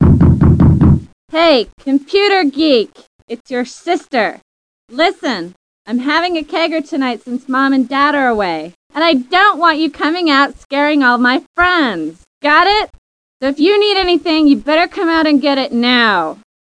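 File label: "out", English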